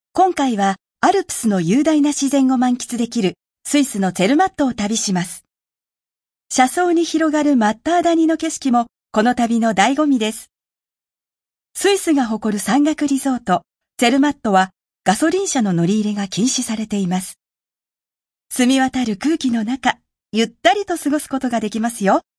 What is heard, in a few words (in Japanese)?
レポーター VO ボイスオーバー 中音